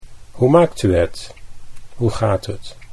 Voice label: "hoe maakt U", Dutch

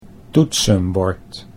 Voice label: "toetsenbord", Dutch

